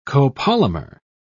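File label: "copolymer", British English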